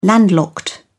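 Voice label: "landlocked", British English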